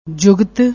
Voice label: "jugat", Punjabi